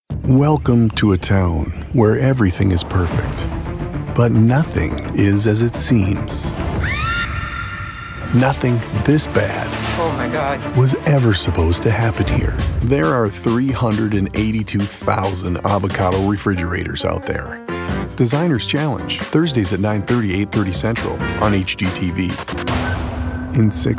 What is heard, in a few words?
promo